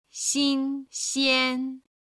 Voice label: "xin xian", Chinese

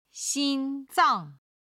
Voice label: "xin1 zang4", Chinese

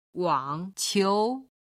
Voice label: "wang qiu", Chinese

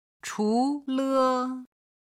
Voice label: "chu2le5", Chinese

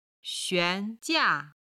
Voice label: "xuan2 jia4", chinese

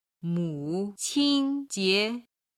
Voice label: "muqinjie", Chinese

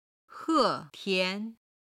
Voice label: "he4 tian2", Chinese

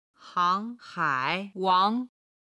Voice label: "hang2 hai3 wang2", chinese

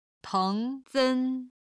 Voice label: "teng2 sen1", Chinese

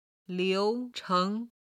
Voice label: "liucheng", Chinese

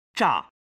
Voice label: "zha", Chinese

